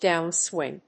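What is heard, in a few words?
"downswing", English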